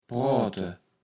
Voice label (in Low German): "proate", Platt